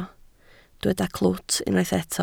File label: "clwt", Welsh